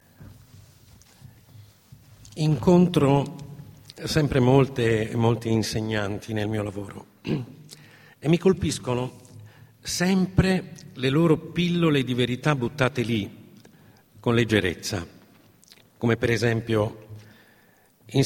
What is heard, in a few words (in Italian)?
Lettura